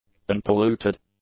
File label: "unpolluted", english; adjectives